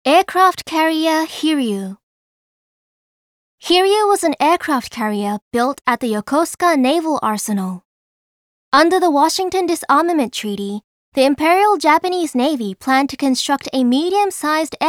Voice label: English; Yamato Museum